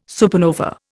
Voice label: "supernova", Indian